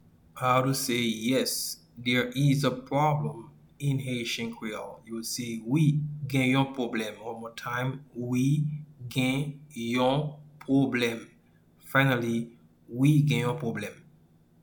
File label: Yes there is a problem in Haitian Creole Wi gen yon pwoblem pronunciation